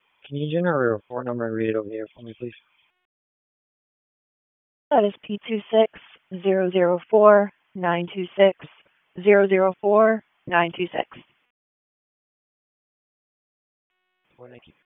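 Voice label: Police Northeast District Dispatch, p25; Raleigh Police Northeast District Disp; wake p25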